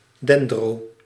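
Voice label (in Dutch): "dendro-", Dutch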